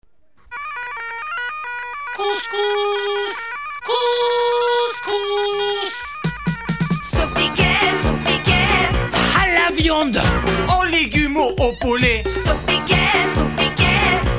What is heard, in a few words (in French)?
pub saupiquet couscous